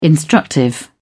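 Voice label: "instructive", British English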